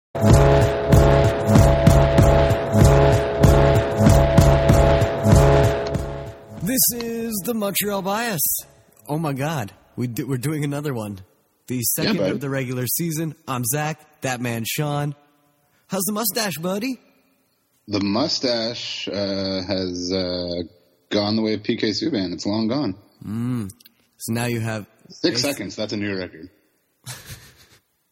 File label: podcast; past the noise